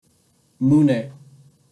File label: "mune" Japanese